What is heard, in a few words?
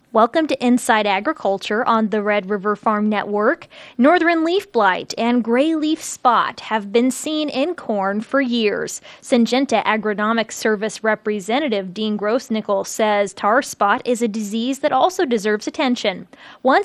air check; Syngenta